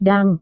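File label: "dong", Indonesian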